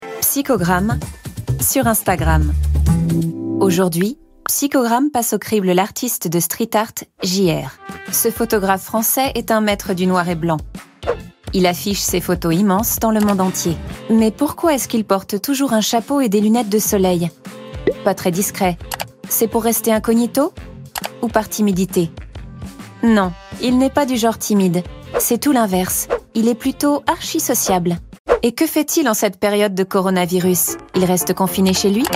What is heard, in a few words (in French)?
narration VF; ARTE; Magculturel